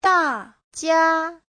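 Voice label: "dàjiā", Mandarin Chinese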